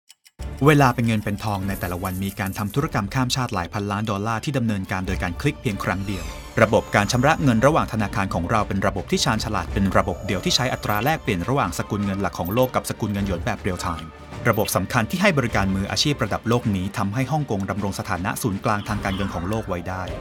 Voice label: Thai; BrandHK Thai Brain